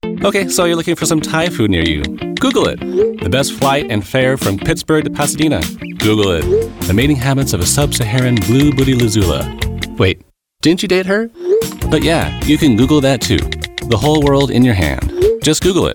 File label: Spot; Google